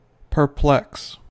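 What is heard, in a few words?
"perplex", US English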